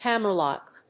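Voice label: "hammerlock", English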